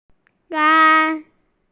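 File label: "gai1", Mandarin Chinese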